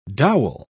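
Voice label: "dowel", British English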